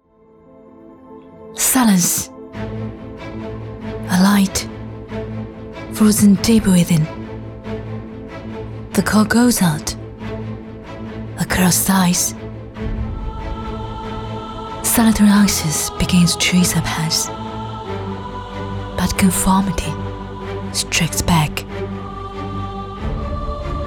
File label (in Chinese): 女 英文TVC